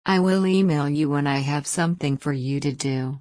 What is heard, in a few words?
cinema dialog